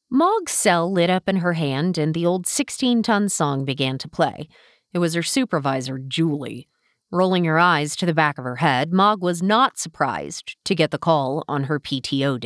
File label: audiobook narration